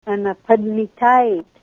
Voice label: she is sweeping, Ninilchik Russian; padm'itát'